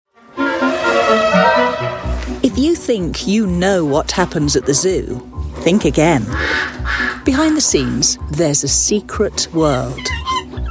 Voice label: narrative